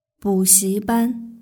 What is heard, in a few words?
"buxiban", Chinese; gaokao